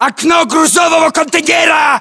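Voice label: landmark near cargo container, battlechatter, Russian; localized english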